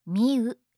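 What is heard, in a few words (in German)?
"miu", japanische Mädchennamen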